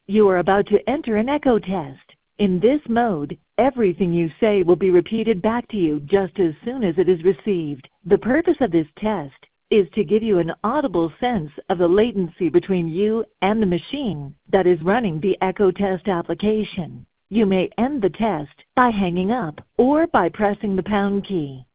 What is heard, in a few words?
Echo Test; Asterisk